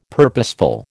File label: "purposeful", American